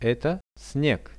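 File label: "this is snow", Russian; Это снег; nature; priroda; RUSSIAN VISUAL DICTIONARY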